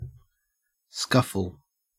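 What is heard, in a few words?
"scuffle" English, Australian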